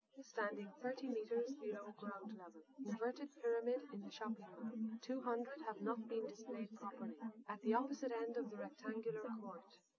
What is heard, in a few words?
test female liverec 130ms 5cm sim